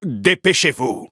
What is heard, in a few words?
battle, fr